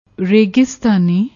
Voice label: "registani", Punjabi